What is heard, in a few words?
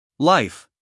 "life", US English, male